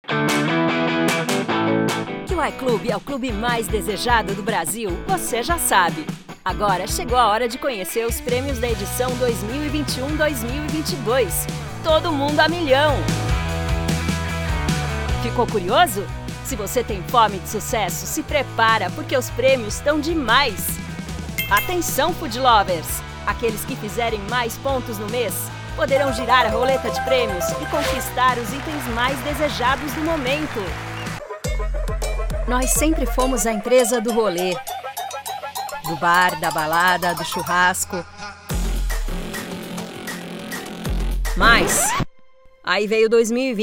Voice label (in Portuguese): animadas jovial mix